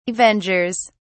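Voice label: "avengers", English, British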